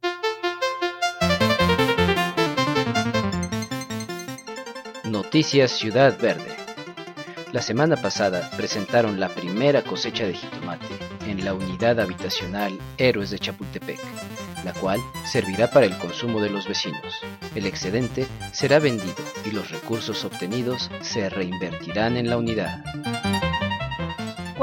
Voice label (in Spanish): comercial completo; ciudad verde